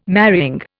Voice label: "marrying", Indian